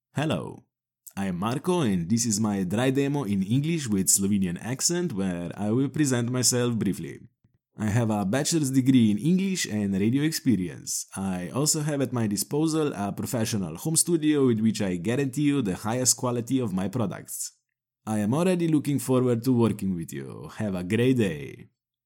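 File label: Slovenian, Accented English; GoLocalise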